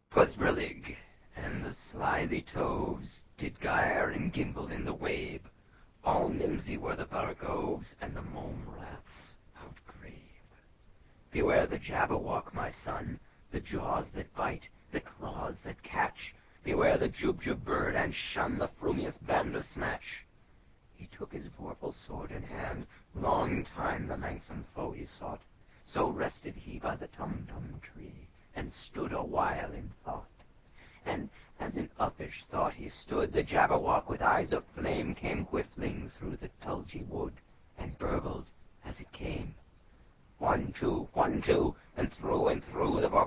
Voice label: whispered, Jabberwocky; 1st Avenue